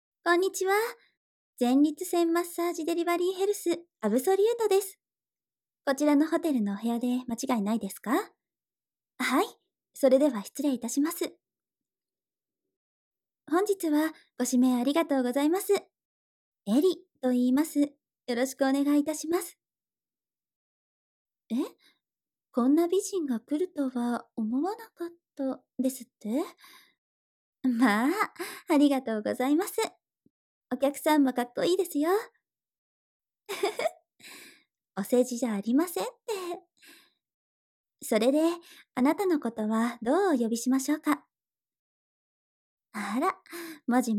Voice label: ASMR 耳舐め 乳首舐め 効果音なし; 初めての前立腺から耳舐め・乳首舐めでいきなりドライオーガズムへ

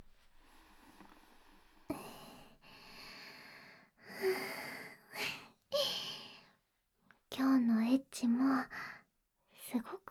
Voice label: ピロートーク, ASMR; SEあり

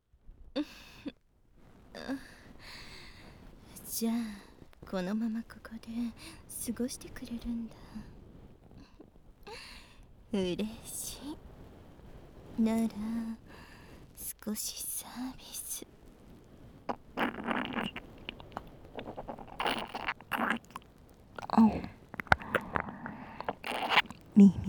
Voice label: ピロートーク, ASMR

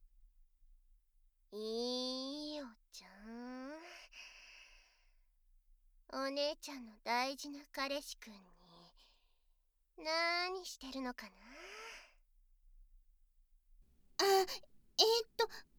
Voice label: バイノーラルマイク 効果音あり; 妹との行為が彼女にバレた

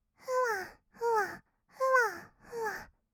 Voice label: ふわふわ, kawaii voice, 合いの手; 1200 kawaii voice!!; ASMR

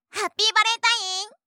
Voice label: ハッピーバレンタイン！, ASMR

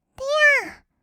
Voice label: "てやー", kawaii voice; 音ゲー

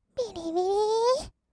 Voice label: びりびりー, kawaii voice; ASMR; 色々なワンショット

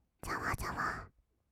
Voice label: 「ざわざわ」, kawaii voice; 1200 kawaii voice!!; 色々なワンショット

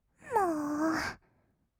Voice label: "もー", kawaii voice; 1200 kawaii voice!!; 色々なワンショット

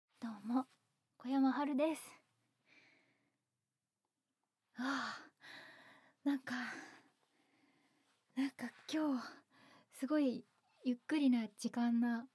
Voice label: フリートーク

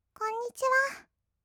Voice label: "こんにちは", kawaii voice; 挨拶

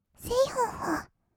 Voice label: "せいほっほっ", kawaii voice; ASMR; 1200 kawaii voice!!; 音ゲー

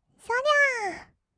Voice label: "そりゃー", kawaii voice; ASMR; 1200 kawaii voice!!; 音ゲー

